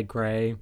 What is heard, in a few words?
gray, Voice recordings, American, Conversation